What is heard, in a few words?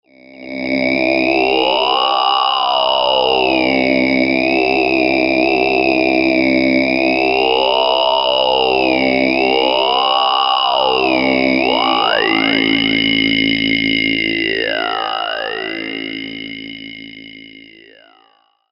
Monk low